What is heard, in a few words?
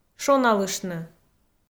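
"шоналыштны", Komi, female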